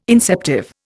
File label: "inceptive", Indian